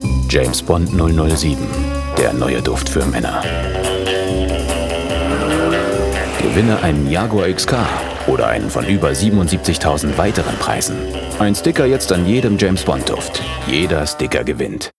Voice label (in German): Werbung, Cool